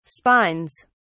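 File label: "spines", British English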